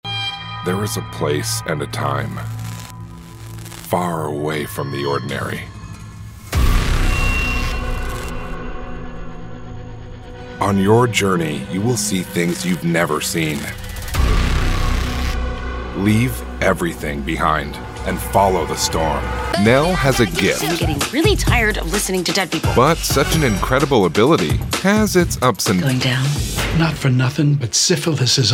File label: Promo